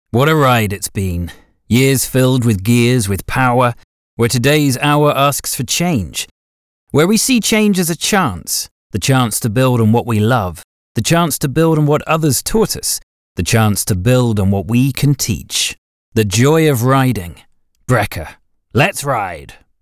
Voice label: UK; Brekr bike

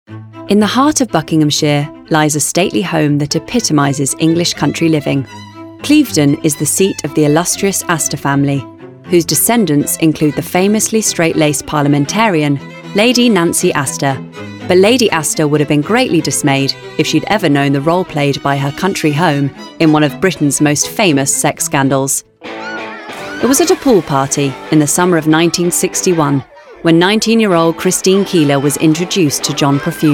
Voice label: Documentary Reel

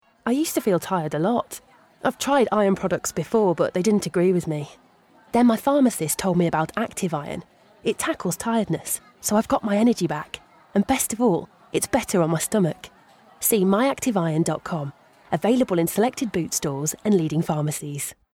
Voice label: Commercial; Acitve Iron

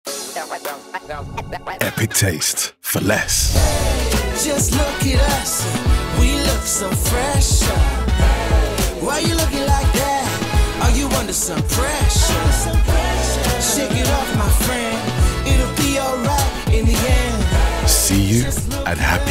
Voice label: UK; Happy Italy

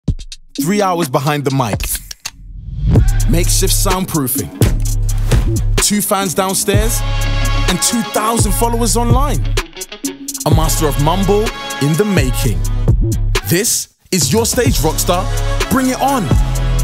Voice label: Bedroom Rapper, UK

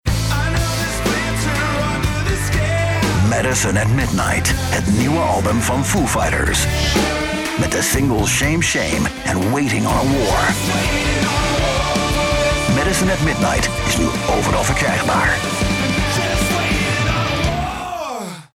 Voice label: NL; Kink

